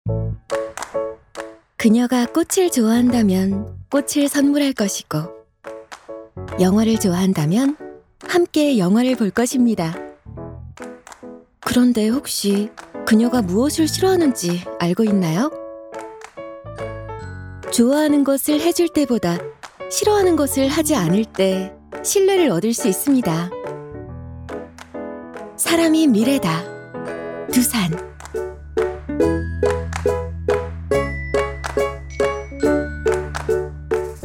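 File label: Korean, female; 여성 (Female); 광고; 나레이션; ARS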